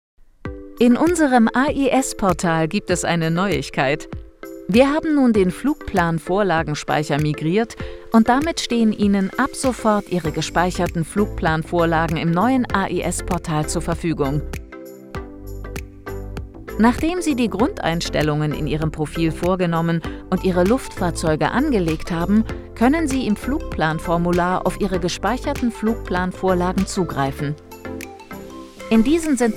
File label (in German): imagefilm